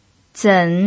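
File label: "zen3", Mandarin Chinese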